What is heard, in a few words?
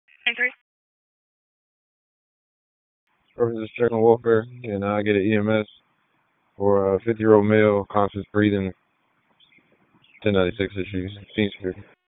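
Police Southeast District Dispatch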